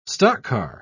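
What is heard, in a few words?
"stockcar", English, British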